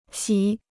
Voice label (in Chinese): "洗", Chinese